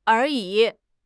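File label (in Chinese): "而已", Chinese; HSK6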